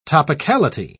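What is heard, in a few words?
"topicality", British English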